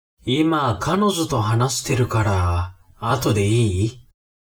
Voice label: man